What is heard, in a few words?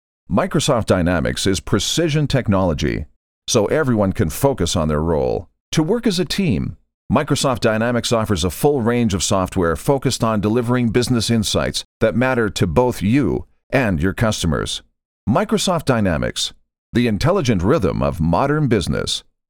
english american explainer videos; Deep and authoritative with elegant clarity and warmth